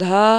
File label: "dha", marathi